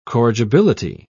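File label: "corrigibility", British English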